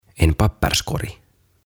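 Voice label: "En Papperskorg", "Paperikori", Swedish, Finnish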